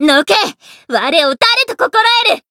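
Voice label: Voice encounter, Japanese; tiramisu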